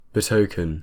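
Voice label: "betoken", British English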